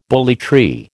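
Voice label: "bully tree", American English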